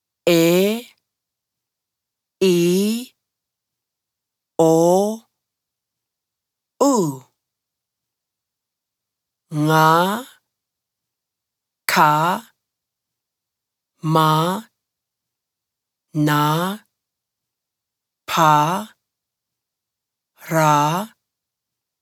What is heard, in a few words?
Cook Islands Māori alphabet female voice